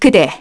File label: vox get, kr; Artemia; kingsraid